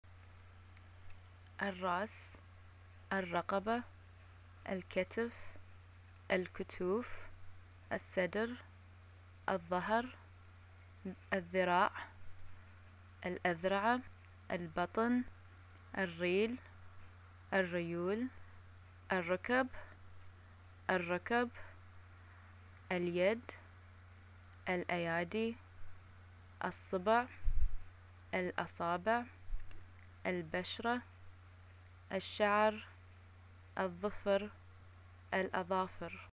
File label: body parts, arabic